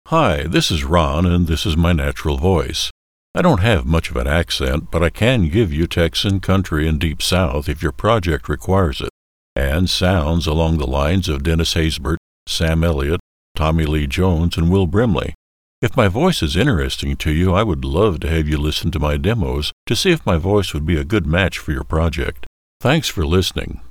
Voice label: natural voice